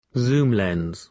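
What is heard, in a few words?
"zoom lens", British English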